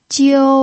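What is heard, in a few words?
"jiu", first tone, Mandarin Chinese pinyin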